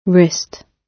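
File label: "wrist", British English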